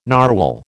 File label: "narwhal", American